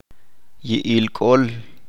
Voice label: "El mal", Chalchiteko

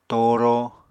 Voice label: "tooro", Apatani

